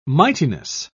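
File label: "mightiness", British English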